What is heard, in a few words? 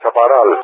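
"Chaparral", English speech; AirDefence; Allied Flight; DCS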